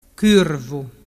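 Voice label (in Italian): "curvu", Vernazzese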